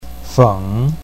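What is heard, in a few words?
"feng3", Chinese pinyin, third tone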